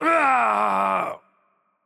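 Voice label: Turkish death voice; bloom minigame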